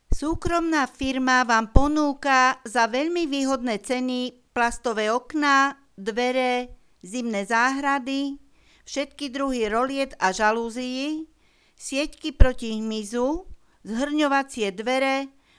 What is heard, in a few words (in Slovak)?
komercne hlasenie; fa nove zamky